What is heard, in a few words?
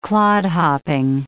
"clodhopping", English